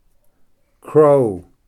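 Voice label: "crow", English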